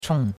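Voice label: "chong4", Chinese; Cours-de-Chinois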